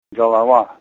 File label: head, Ninilchik Russian